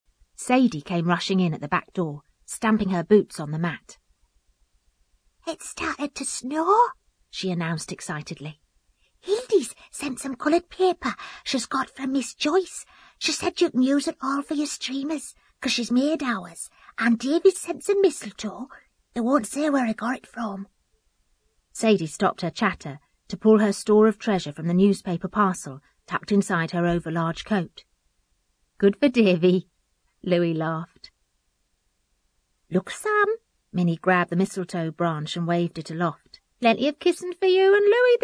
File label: geordie child